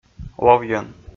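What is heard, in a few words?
"ловйӧн", Komi, male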